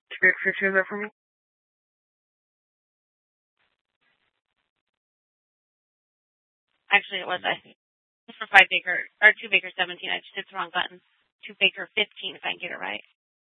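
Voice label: police dispatch, scanner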